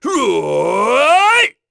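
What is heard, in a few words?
Vox Casting, voices heroes English; Lusikiel; kingsraid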